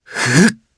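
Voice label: Vox Casting, Japanese; Theo; kingsraid audio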